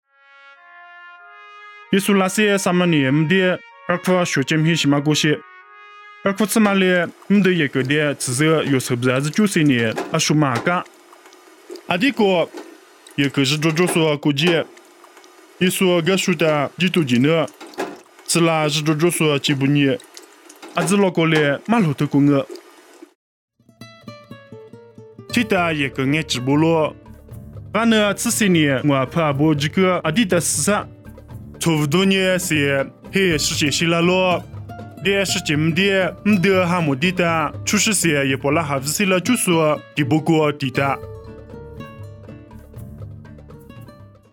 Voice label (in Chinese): 凉山彝语; 以色列叙加